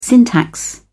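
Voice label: "syntax", British English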